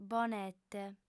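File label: "bonete", female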